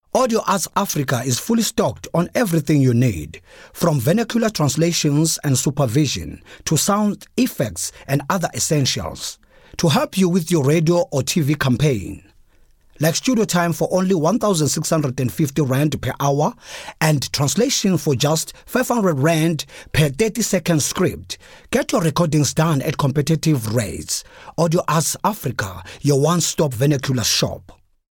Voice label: English Retail